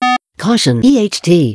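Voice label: Beep Caution EHT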